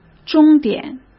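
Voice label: "终点", Mandarin Chinese; hsk; chinese-flashcards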